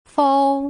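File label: "fou", Putonghua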